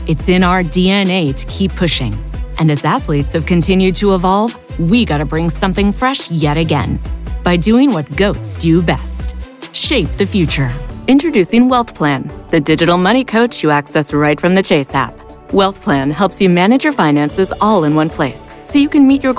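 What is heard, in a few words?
voice over narration; global voice acting academy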